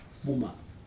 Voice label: "puma", breadfruit, Aneityum

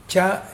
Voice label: "not", Mokilese

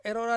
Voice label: "rora", Ansus; to hit

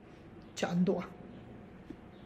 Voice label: I am going to Oaxaca, Tlacochahuaya Zapotec; (Spanish) voy a Oaxaca; txa' Ndua'

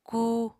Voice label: "kuu", Apatani

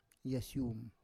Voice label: "yasyum", Roon

